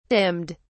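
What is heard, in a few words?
"dimmed", British English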